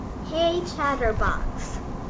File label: hey chatterbox, en us